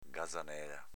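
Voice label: "gazanela", Venetian dialect; s.f. cesena (uccello)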